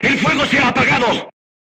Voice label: voice message fire extinguished, crew tanks spanish